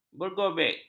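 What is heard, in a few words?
"bergobek", Malay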